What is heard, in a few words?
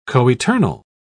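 "coeternal", English, British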